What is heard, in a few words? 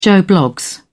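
"joe bloggs", British English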